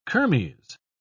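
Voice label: "kermes", British English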